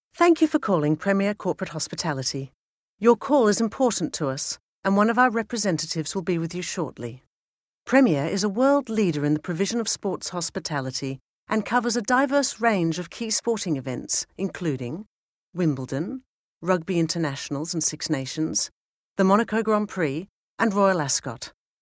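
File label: English Australian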